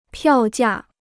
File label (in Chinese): "票价", Chinese